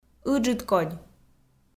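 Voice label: "ыджыдкодь", Komi, female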